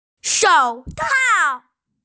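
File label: "shou tao", Mandarin Chinese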